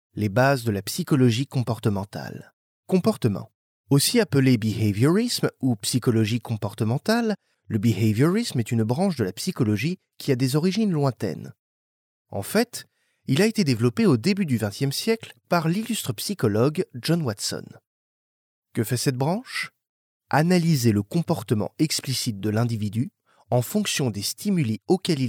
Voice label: male french voice over talent narrative

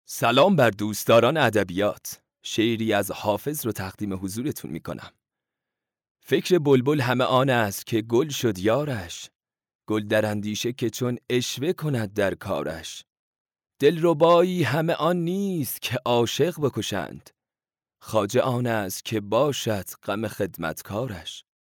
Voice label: male farsi voice over talent narrative

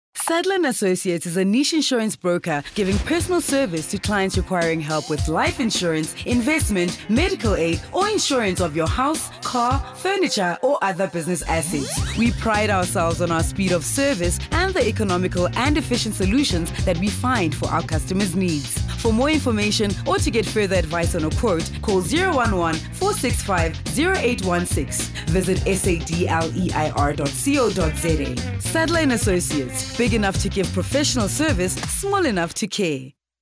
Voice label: female sesotho voice over english

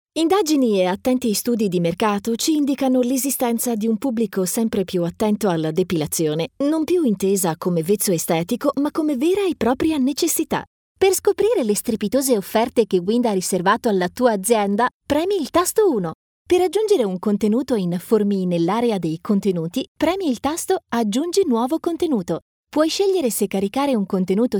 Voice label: female italian voice over narrative

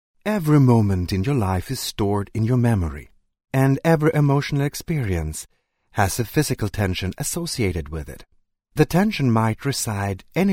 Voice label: male swedish voice over talent english

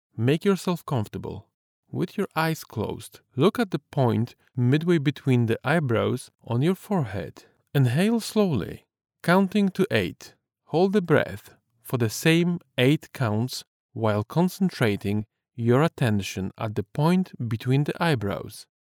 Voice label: male polish voice over talent english

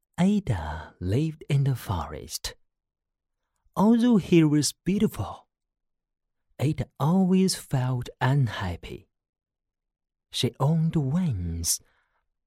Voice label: male chinese voice over talent english; mandarin chinese

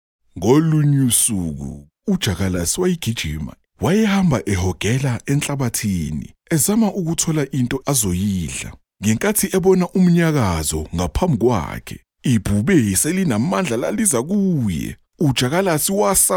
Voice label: male zulu voice over talent narrative

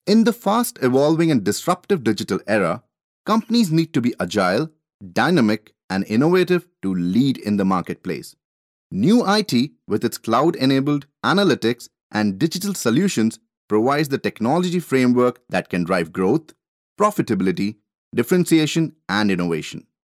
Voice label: male hindi voice over talent english

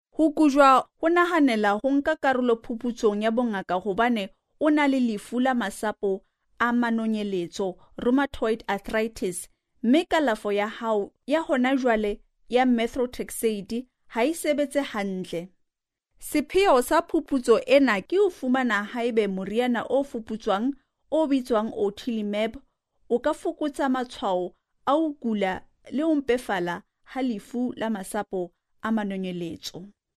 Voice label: female sesotho voice over narrative

